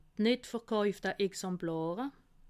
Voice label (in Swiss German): "nittverkaufte", alsace